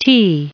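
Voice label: "tea", US English, recite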